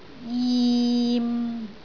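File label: "jim6", Cantonese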